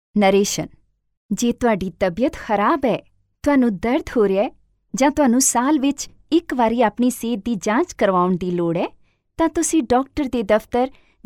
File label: punjabi female punjabi narration; Female Punjabi Voiceover Artist Talent; Punjabi Narration voice over sample